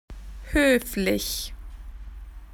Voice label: polite, spoken AU; PictureDictionary